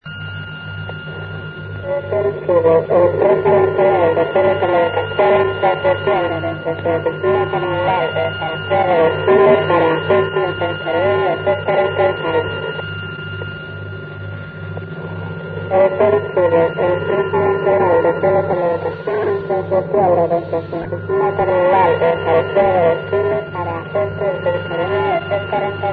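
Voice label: upper sideband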